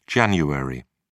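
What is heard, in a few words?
"jan", British English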